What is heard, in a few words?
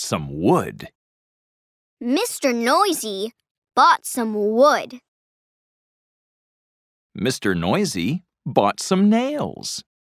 Echo Read; Mr. Noisy Builds a House